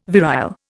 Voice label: "virile", Indian

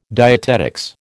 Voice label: "dietetics", American

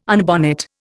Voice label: "unbonnet", Indian

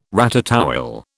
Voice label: "ratatouille", British

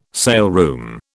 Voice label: "saleroom", British

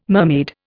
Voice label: "mermaid", Indian

